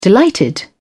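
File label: "delighted", British English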